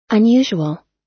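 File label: "unusual", US English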